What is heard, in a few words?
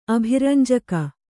"ಅಭಿರಂಜಕ", kannada